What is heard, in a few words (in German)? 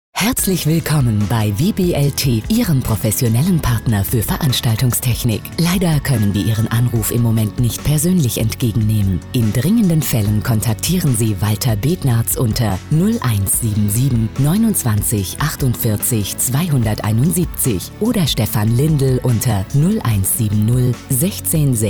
Sprachprobe w